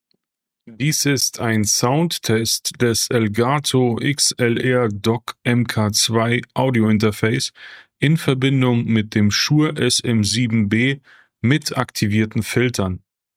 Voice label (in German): Elgato XLR DOCK MK.2 SHURE SM7B mit Filter